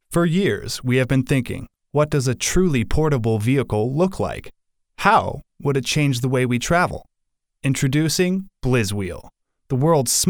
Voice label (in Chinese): 交通工具宣传, 沉稳